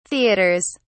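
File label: "theaters", British English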